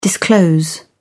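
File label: "disclose", English, British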